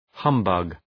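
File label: "humbug", English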